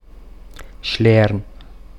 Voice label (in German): Schlern Mundart